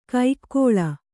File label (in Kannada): "ಕೈಕ್ಕೋಳ", Kannada